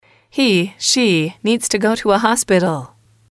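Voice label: he she needs to go to a hospital, English, female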